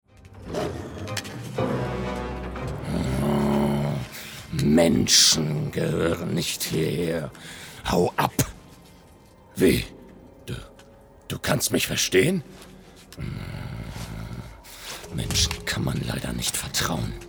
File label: Animation; düster